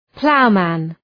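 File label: "ploughman", English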